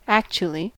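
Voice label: "actually", US English; pronunciation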